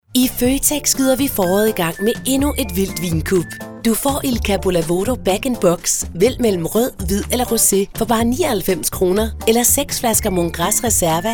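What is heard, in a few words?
Danish commercial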